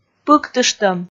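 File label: "пыктыштӧм", Komi, female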